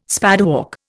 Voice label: "spadework", Indian